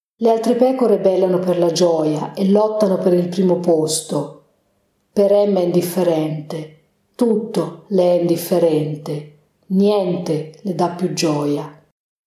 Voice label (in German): italienisch